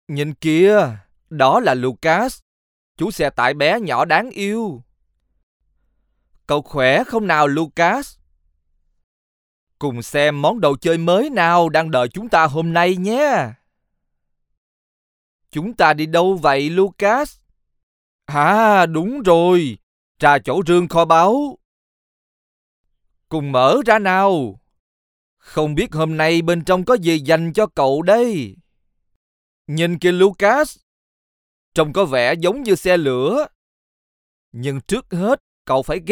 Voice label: Animation